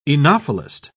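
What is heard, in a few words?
"oenophilist", English, British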